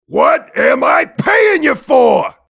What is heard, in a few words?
mobster negative vocalization